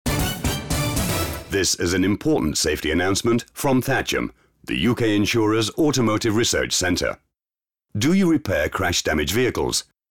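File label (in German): British englisch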